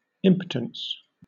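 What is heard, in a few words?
"impotence", British English; pronunciations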